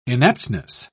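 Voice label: "inaptness", British English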